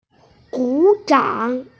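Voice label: "guzhang", Mandarin Chinese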